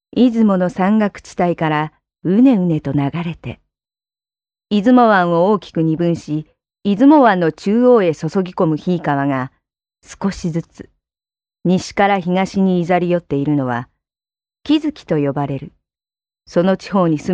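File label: Japanese, female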